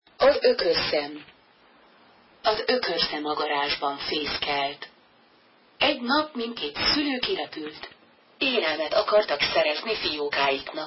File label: magyar